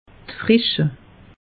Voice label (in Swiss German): "frische", alsace; meteo